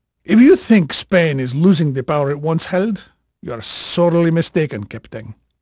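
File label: Spanish male, voice; Pirates Of The Caribbean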